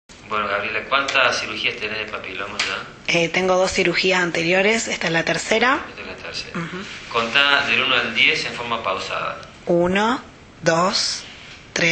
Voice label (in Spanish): PRE OP, Sonido pre operatorio, Papilomatosis. Mujer. 23 años; HPV tipo 6-11 y 16; Score de severidad de Derkay 11